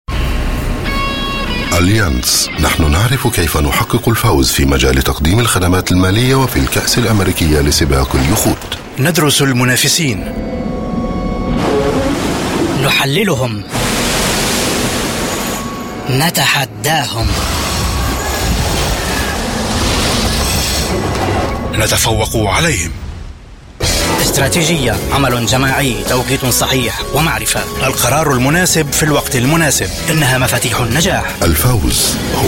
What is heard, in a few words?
m